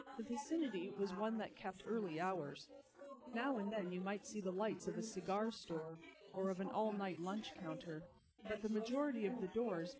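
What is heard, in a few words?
female instantaneous source; SiSEC10; underdetermined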